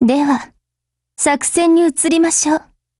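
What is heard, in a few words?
HELLO Japanese, Voice; GF Alarm; AUG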